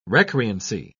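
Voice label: "recreancy", British English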